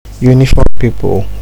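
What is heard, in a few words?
"uniform people", Nigerian Pidgin, male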